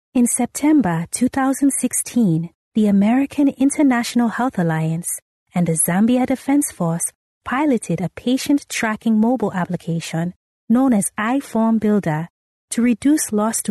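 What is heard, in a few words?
Swahili Female Accented English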